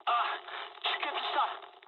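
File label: Japanese damaged pilot mood low; War Thunder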